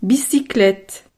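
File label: "bicyclette", French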